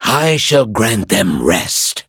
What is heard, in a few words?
level up, en; cookie run